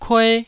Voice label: "kue1", Taiwanese syllable

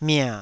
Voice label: "mia3", Taiwanese

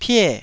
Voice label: "pie4", taiwanese

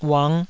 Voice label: "uang5", Taiwanese

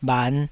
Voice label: "bhan5", taiwanese

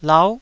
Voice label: "lau9", taiwanese syllables

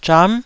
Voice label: "zham9", taiwanese

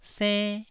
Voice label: "senn1", taiwanese syllable

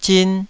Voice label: "zin2", Taiwanese syllable

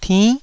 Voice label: "tinn9", taiwanese Syllables

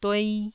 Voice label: "duei1", taiwanese syllables

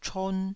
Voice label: "chon1", taiwanese

